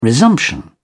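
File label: "resumption", English, British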